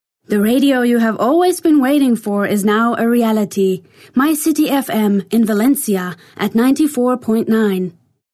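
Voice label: Finnish, female, English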